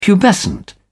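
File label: "pubescent", British English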